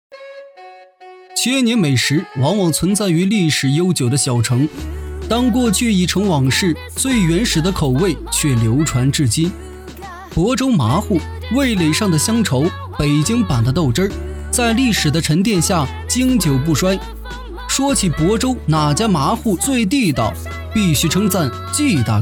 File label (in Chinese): 旁白 幽默欢快; 亳州嘛糊